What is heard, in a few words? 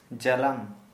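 Santali male net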